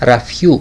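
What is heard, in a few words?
"rafioy", Greek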